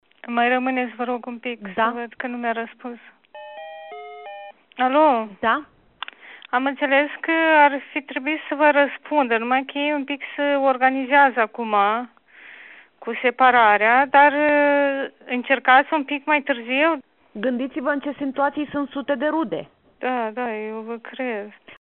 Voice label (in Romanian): ambianta dialog; Infectare în masă cu noul tip de virus la Centrul de Recuperare și Reabilitare Neuropsihic